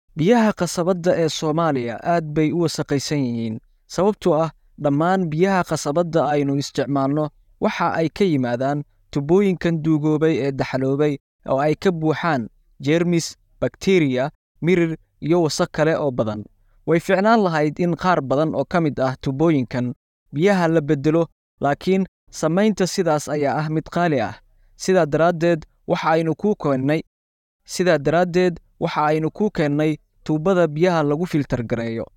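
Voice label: Somali, male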